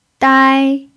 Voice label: "dai1", chinese

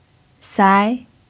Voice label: "sai2", Chinese

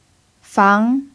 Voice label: "fang2", chinese

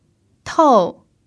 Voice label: "tou4", chinese

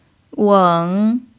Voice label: "weng3", Chinese

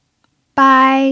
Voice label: "bai1", chinese

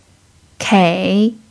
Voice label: "kei3", chinese

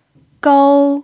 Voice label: "gou1", chinese

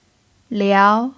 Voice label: "liao2", chinese

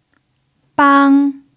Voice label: "bang1", chinese

